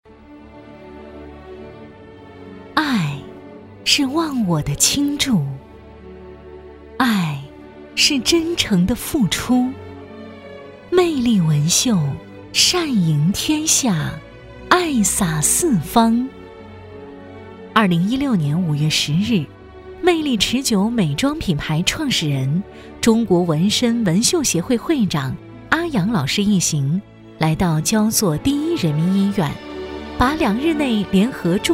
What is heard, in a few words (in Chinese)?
女 专题 感人温暖; 魅力纹绣